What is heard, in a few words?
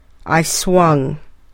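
"I swung", English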